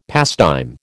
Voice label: "pastime", American